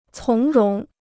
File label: "从容", Chinese